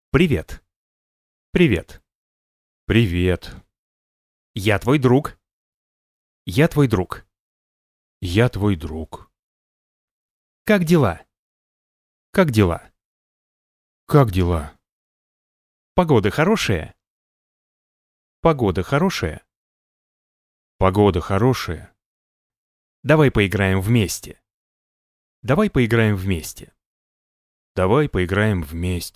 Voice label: ru male